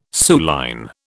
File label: "suilline", British